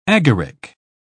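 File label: "agaric", British English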